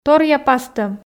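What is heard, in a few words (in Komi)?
"торъя пастӧм", Komi, female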